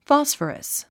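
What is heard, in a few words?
"phosphorus", English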